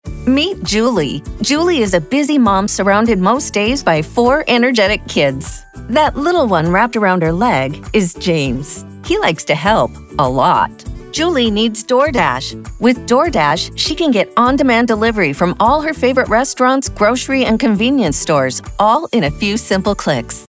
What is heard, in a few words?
spot; DoorDash